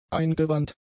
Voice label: "eingewandt", German; adjectives

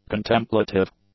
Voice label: "contemplative", English; adjectives

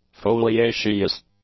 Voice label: "foliaceous", english

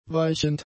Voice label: "weichend", German; adjectives

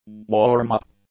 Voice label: "warm-up", English; nouns

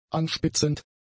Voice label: "anspitzend", German; adjectives

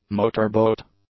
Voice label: "motorboat", English

